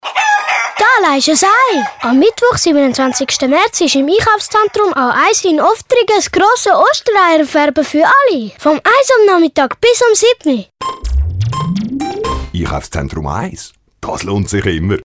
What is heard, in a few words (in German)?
radiospot; a1ostern